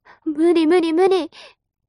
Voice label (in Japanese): mob女子台詞